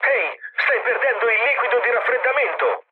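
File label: Italian damaged water mood low, speech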